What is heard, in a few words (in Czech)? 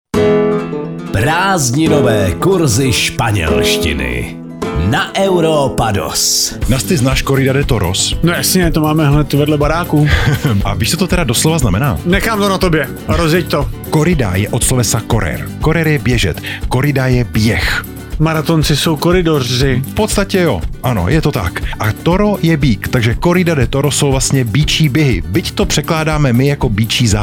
spanelstina spanelstina